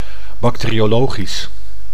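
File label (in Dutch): "bacteriologisch", Dutch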